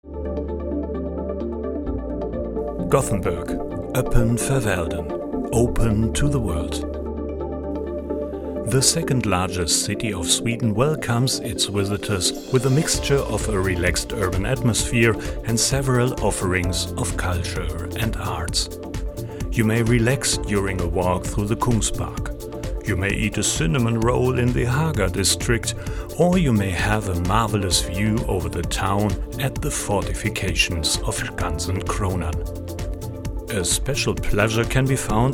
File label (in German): englisch; Goeteborg 2022